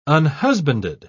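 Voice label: "unhusbanded", British English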